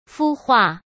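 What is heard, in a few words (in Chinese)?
"孵化", Mandarin; chinese-flashcards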